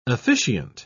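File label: "officiant", British English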